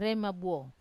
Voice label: "rema buo" betel pepper, Wamesa

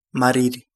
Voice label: Kapingamarangi; dull

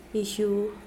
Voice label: seven, Mokilese

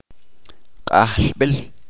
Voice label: "Altar", Chalchiteko

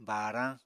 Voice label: "baarañ", Apatani

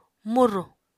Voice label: "murù", Apatani

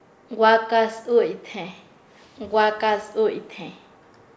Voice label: "senora de raza blanca", Nasa Yuwe; paez

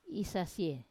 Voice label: "isasie", I breate, wamesa

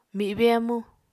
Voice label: "mibe amu", Apatani

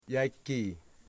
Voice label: "tobacco", Wamut

